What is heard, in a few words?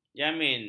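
"jamin", Malay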